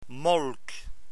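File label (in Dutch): "molk", Dutch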